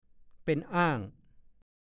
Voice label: "เป็นหม่อเข่าหม่อแกง", ภาษาโคราช; (สำนวน) เป็นแม่บ้านแม่เรือน เก่งเรื่องงานบ้านงานเรือน

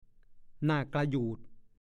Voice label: "หน่ากระหยูด", ภาษาโคราช; หน้านิ่วคิ้วขมวด

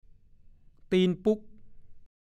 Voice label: "ตีนปุ้ก", ภาษาโคราช

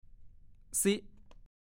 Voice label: "ซิ", ภาษาโคราช; 1. มีความหมายทำนองว่า รู้สึก ดูเหมือนว่า

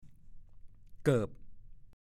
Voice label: "เกิบ", ภาษาโคราช; รองเท้า

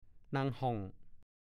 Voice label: "นางเล่ก", ภาษาโคราช; 1. ขนมนางเล็ด

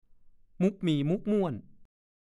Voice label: "มุ่กมี่มุ่กม่วน", ภาษาโคราช; กะปลกกะเปลี้ย

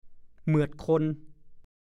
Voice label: "เหมือดคน", ภาษาโคราช; ไม้ชนิดหนึ่งใช้ทำยาแก้ผิดสำแลง

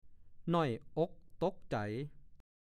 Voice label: "น่อยอ๊กต๊กใจ", ภาษาโคราช; น้อยอกน้อยใจ

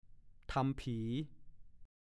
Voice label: "ทำผี", ภาษาโคราช; ดูคำว่า ผัวควยเมียควย

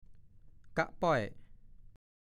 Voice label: "กะป้อย", ภาษาโคราช; 1. ภาชนะตวงข้าวเปลือก สานด้วยไม้ไผ่มีขนาดไม่ใหญ่นัก ใช้สำหรับตวงข้าวเมื่อนำสิ่งขอ

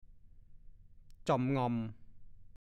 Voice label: "จ้อมล่อมแจ้มแล่ม", ภาษาโคราช; จิ้มลิ้ม น่ารักน่าเอ็นดู

